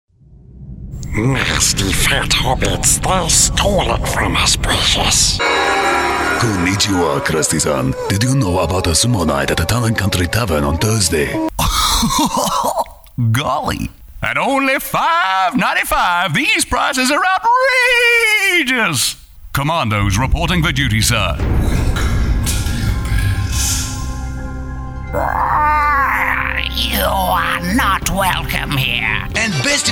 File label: Character